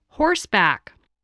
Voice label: "horseback", voice spelling